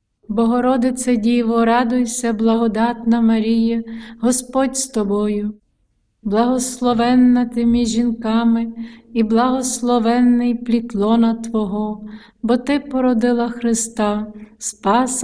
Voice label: hail mary ukrainian

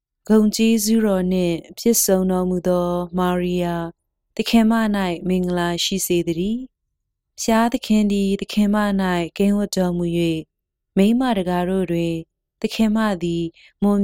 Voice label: hail mary burmese

